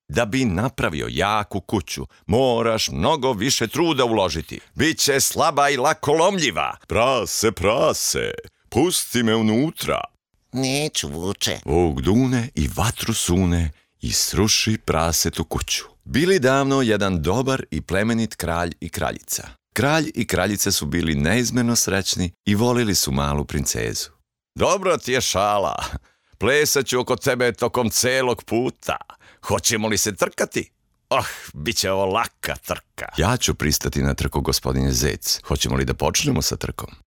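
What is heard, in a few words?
Serbian, male; serbian voice over talent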